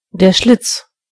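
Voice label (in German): "slot", German; gcompris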